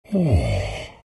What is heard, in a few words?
treant happy